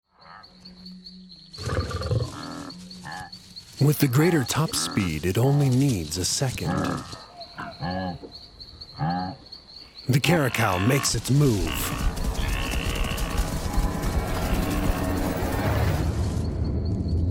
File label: Film TV Narration